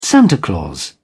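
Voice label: "santa claus", British English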